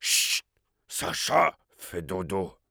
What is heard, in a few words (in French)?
Heavy melee dare, fr; Shhh… Sasha fait dodo.